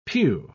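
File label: "piu", British English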